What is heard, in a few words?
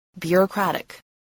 "bureaucratic", spelling